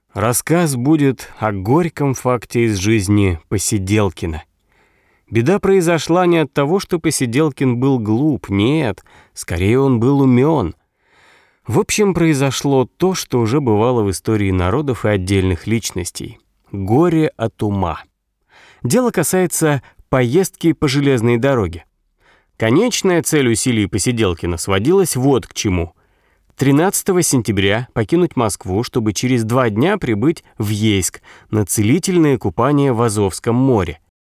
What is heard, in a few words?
russian audiobooks